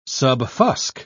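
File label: "subfusc", British English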